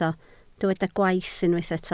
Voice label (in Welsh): "gwaith", Welsh